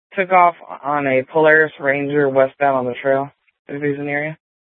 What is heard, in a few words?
Police Department Dispatch, Simulcast; Apache Junction; TOPAZ Regional Wireless Cooperative (TRWC); Mesa Simulcast